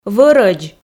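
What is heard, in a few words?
"вӧрӧдз", Komi, female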